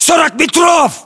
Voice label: threat distance, ru; localized english

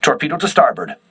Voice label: voice message torpedo right, crew ships english

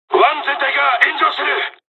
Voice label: japanese first wave; War Thunder

